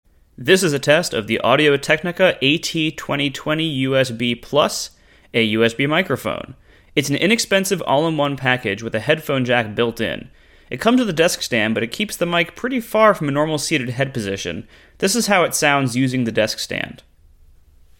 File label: desk distance; microphones; AT2020USBPlus